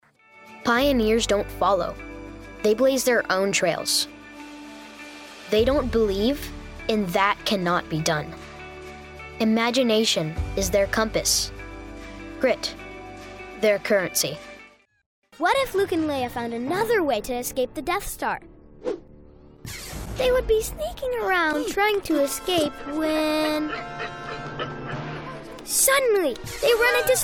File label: child voice over